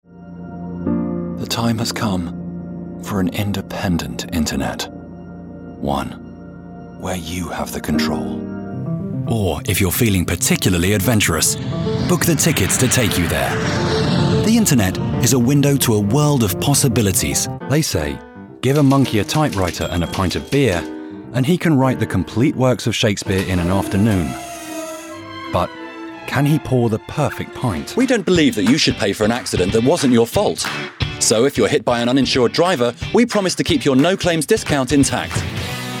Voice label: English British commercial